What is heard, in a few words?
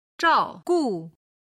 "zhao4gu5", chinese